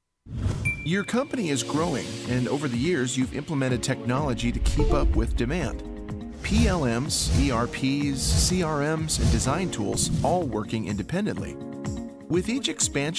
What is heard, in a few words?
Explainer, explainer voiceovers; TriStar